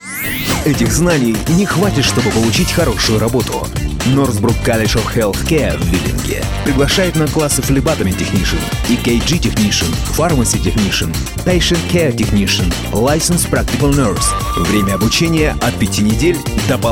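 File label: Russian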